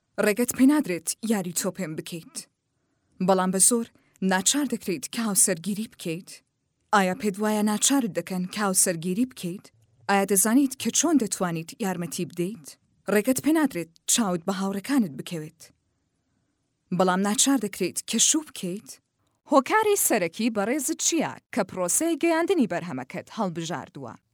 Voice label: Sorani, Female, Adult, Kurdish - Sorani